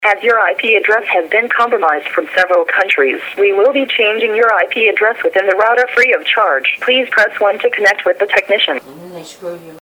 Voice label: scam phone call